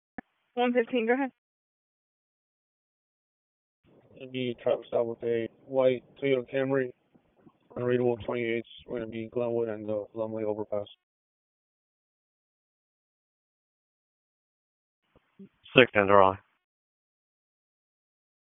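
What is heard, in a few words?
Raleigh Police Southwest District Dispatch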